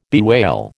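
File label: "bewail", American English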